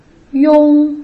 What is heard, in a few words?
"iong", Putonghua